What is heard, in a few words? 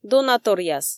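"донаторъяс", Komi, female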